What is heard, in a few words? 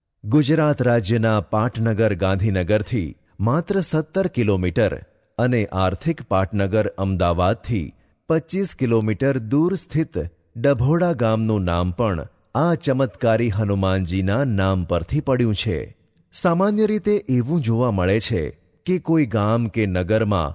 Gujarati for Temple Documentary